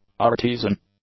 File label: "artesian", English; adjectives